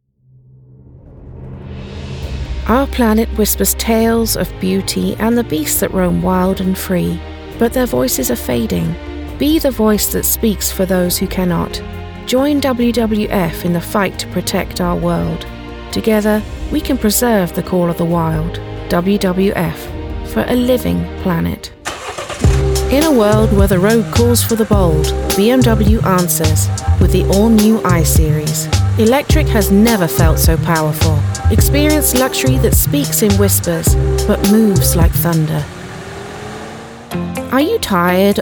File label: Commercial